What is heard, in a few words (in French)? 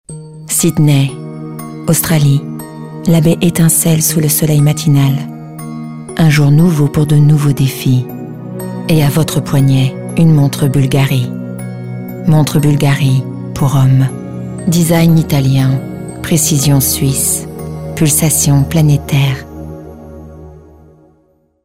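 Posee classe, voix off; Bulgari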